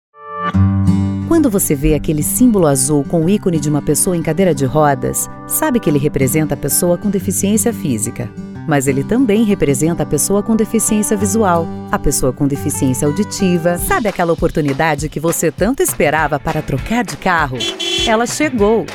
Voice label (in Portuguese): locutora